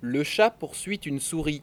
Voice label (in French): chat neutre